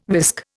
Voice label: "whisk", Indian

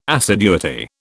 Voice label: "assiduity", British

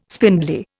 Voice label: "spindly", Indian

